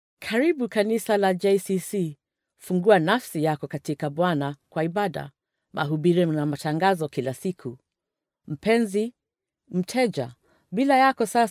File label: female swahili voice over upbeat